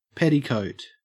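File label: "petticoat", Australian English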